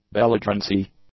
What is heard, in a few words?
"belligerency", English